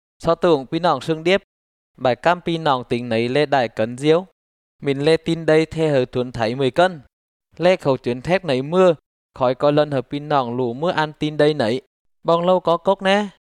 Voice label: tay, drama; tay good news introduction; tyz bsv nt